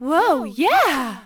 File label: girl; norleans